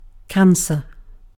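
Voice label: "cancer", British English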